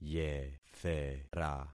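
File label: "yethera", concatenated syllables; Affixing experiment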